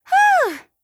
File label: VO en